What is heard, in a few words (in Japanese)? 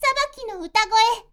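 magi girl "sabakinoutagoe", Japanese; magi syozyo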